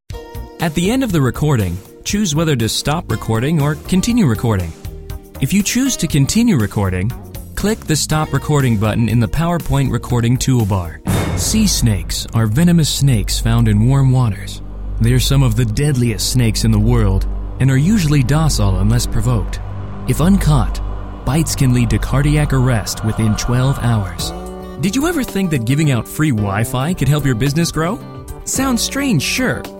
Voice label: NARRATION

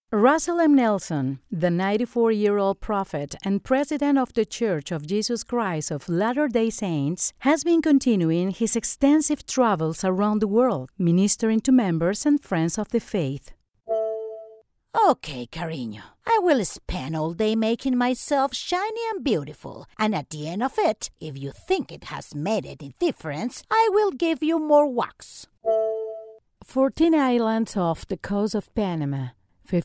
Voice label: English with Spanish accent

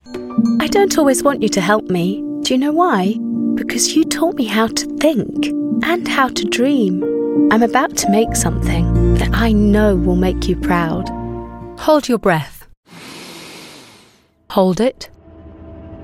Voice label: Commercial with Cumbrian

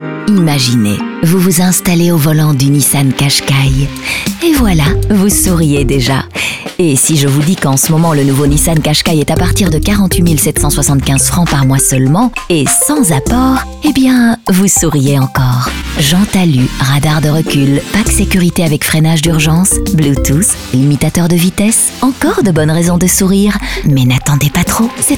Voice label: soft sexy; Nissan

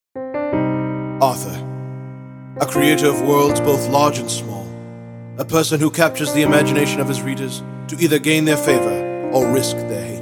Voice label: Voice Over; Uderstanding Authors

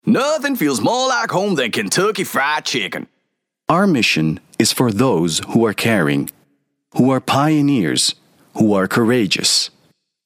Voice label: English US Mid European UK

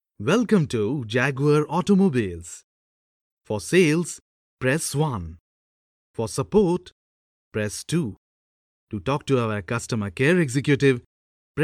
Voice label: IVR Indian English

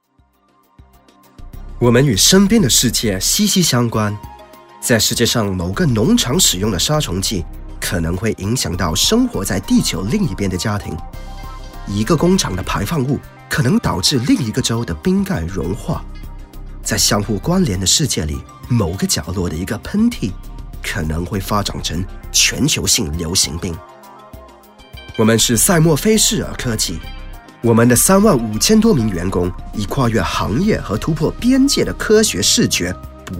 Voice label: Corporate Mandarin

